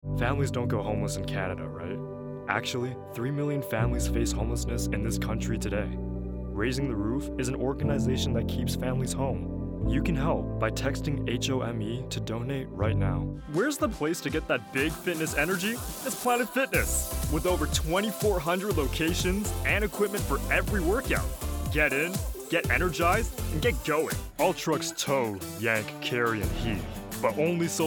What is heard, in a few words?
Commercial